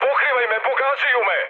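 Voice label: Serbian aircraft damaged mood high, speech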